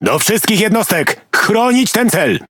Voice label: Speech Quick Commands Ally Ship, PL; World Of Warships